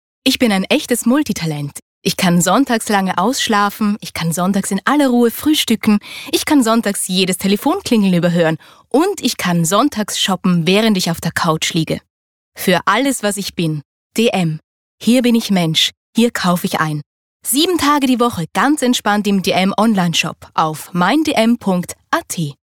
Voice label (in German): Werbung